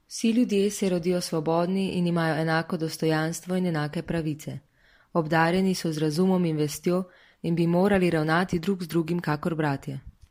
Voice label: Slovenian